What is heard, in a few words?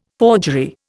"forgery", Indian